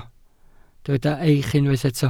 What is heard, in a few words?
"eich", Welsh